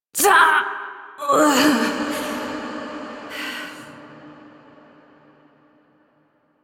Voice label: Adult, en, Dialog